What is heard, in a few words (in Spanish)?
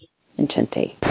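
Voice Acting, Spanish, female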